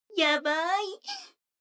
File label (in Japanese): 女の子 台詞, ASMR